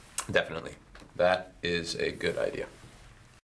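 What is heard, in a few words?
agreeing, American English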